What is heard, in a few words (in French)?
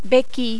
"bequille", French